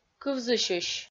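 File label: "кывзысьысь" Komi, female; kpv audio dict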